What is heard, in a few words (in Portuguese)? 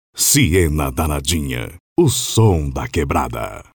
vinheta voz locutor